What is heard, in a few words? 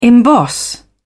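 "emboss", British English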